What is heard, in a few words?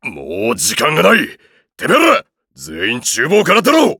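start, Japanese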